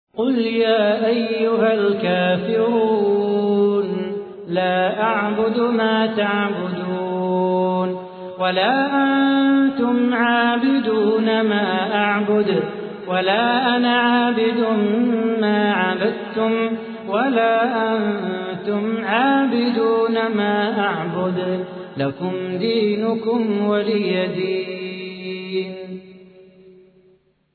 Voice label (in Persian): ترتیل سوره کافرون; صلاح بوخاطر